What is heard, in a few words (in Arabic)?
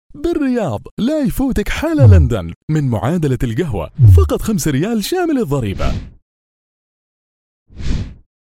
اعلان صوت رجالي